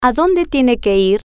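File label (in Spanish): Adonde Tiene Que Ir, spanish, female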